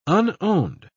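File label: "unowned", British English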